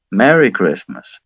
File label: merry christmas, bear; sven; santa bear